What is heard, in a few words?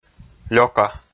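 "лёка", Komi, male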